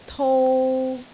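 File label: "tou3", Cantonese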